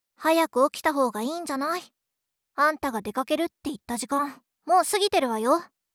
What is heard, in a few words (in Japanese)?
アラームボイス; エレナ